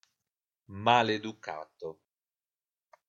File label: "Maleducato", Italian; italian adjectives describe a person